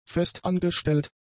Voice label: "festangestellt", german; adjectives

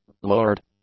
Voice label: "lowered", English; adjectives

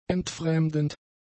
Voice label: "entfremdend", German; adjectives

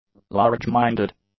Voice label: "large-minded", english; adjectives

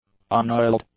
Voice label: "unoiled", English; adjectives

